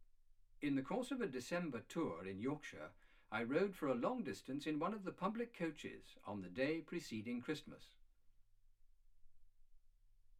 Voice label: male speech english